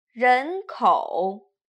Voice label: "renkou", Chinese